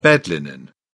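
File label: "bed linen", British English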